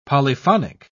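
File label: "polyphonic", British English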